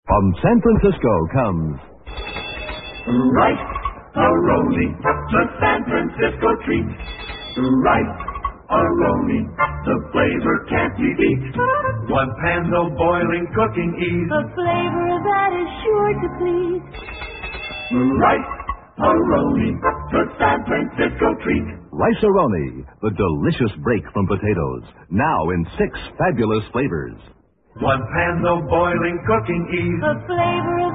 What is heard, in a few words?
Vintage Commercials; Rice A Roni